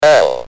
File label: "L", Speak & Spell UK Speech